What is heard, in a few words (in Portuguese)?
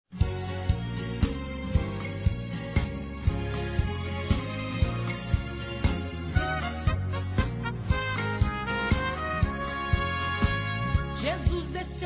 Nosso Criador Masculino